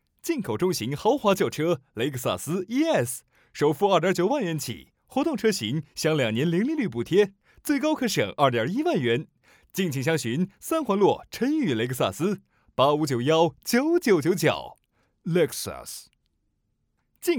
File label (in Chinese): 广告 年轻 沉稳